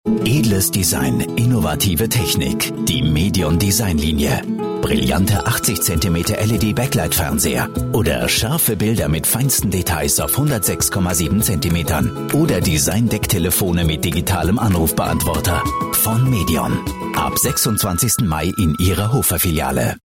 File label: german commercial